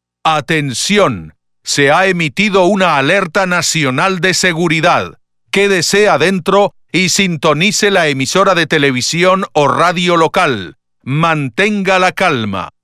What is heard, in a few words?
National Security Warning (Spanish)